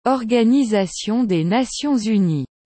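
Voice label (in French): "Organisation des Nations Unies", French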